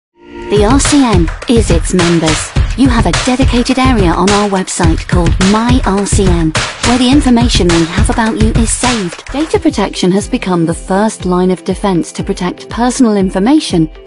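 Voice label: English British, seslendirme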